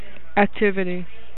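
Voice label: "activity", USA, female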